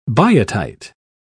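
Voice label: "biotite", British English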